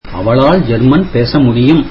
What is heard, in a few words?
tamil she can speak German